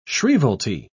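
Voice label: "shrievalty", British English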